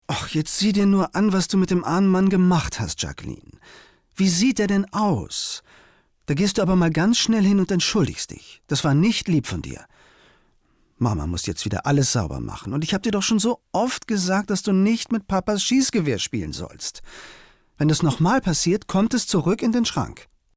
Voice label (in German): synchron